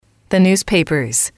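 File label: the newspapers, female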